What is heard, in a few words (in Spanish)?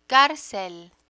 "carcel", Spanish; rules gender termination; learn spanish